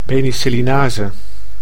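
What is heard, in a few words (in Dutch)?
"penicillinase", Dutch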